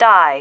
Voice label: "die", female